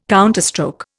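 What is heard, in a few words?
"counterstroke", Indian English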